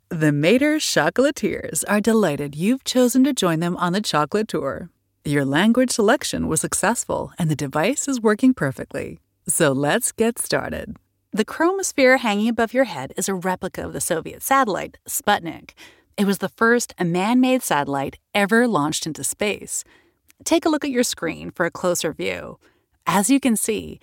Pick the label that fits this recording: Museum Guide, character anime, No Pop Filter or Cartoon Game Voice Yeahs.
Museum Guide